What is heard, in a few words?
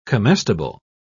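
"comestible", British English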